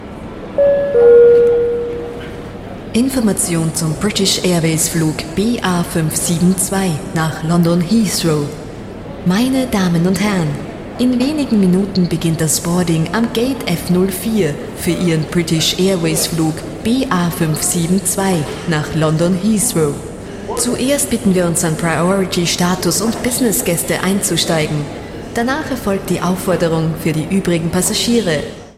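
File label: Announcement Airport German Female Voiceover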